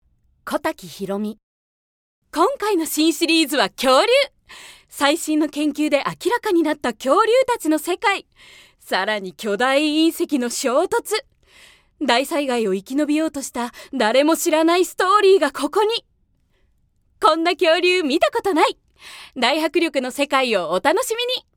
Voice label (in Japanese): 番組CMナレーション